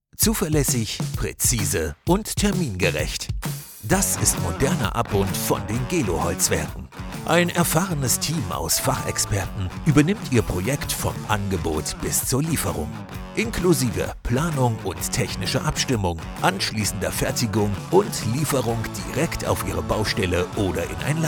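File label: Corporate film woodwork, male; GELO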